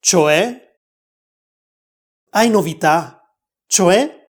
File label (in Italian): Easy Italian Dimmi di più; Italianglot; Easy Italian Pack